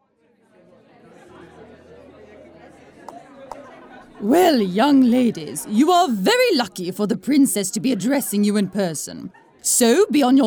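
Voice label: character, USA, female; narrator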